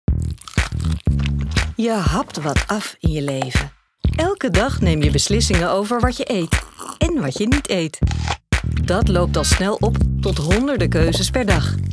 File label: TVC; Voedingscentrum